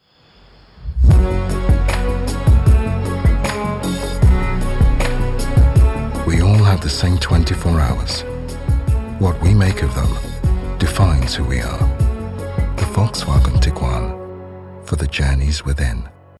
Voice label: english british automotive; african english

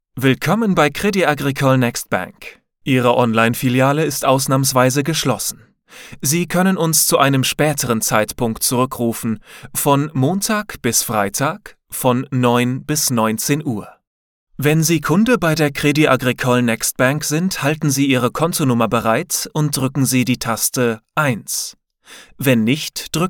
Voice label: german ivr

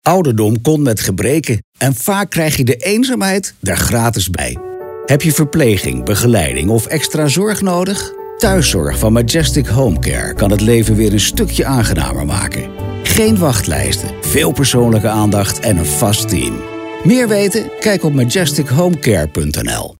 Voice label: dutch corporate videos

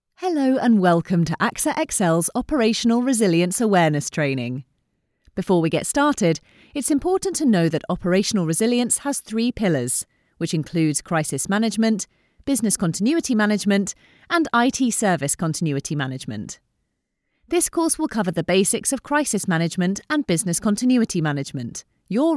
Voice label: English British e-learning

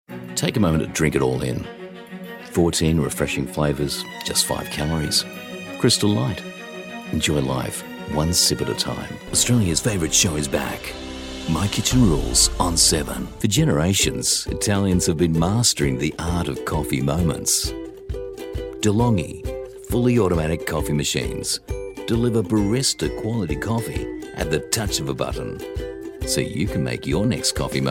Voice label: english australian commercial; Australien

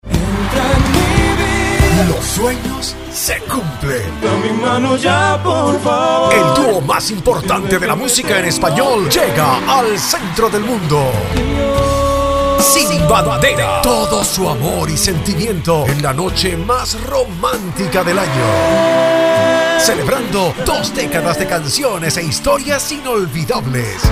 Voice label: spanish mexican promos

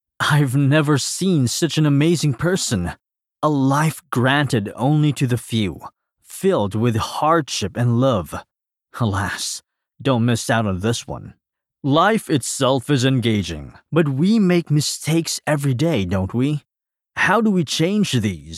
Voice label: english narration

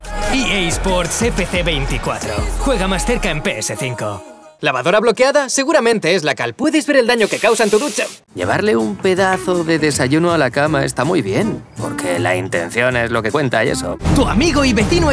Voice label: spanish commercial

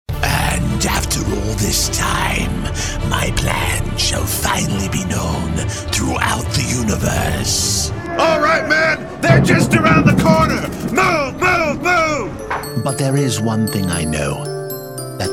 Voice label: English American video games

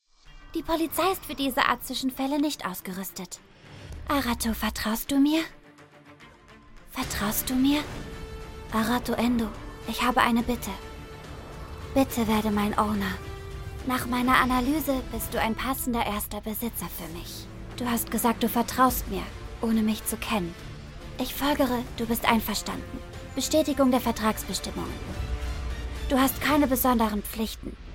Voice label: german impersonations

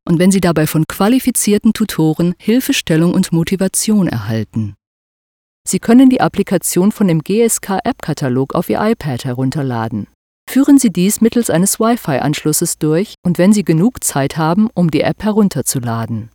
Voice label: german e-learning

